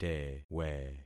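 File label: "tewe", concatenated syllables; Affixing experiment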